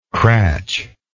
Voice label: "cratch", English